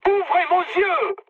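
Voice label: player friendly fire, mood high, French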